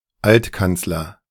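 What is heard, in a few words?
"Altkanzler", German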